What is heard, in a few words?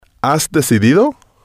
"has decidido", spanish